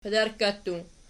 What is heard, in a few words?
"padarkka", Archi